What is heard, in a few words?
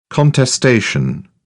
"contestation", British